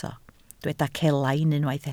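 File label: "celain" Welsh, spoken word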